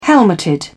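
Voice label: "helmeted", British English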